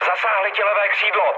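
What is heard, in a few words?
left wing, Czech, mood low; speech; War Thunder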